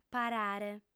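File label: "parare", Sardinian, female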